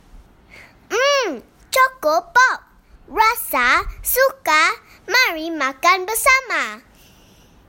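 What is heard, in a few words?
Bahasa Malaysia Sample; Choco Pop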